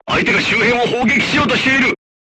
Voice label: voice message art shooting warning, crew tanks japanese